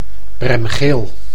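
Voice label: "bremgeel", Dutch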